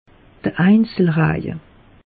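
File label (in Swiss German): "einzelraje", Alsatian; meteo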